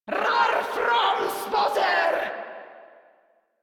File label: Player Spotted Grineer Female, Barks, Battle; Warframe